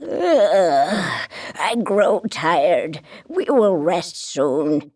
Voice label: female; baldurs1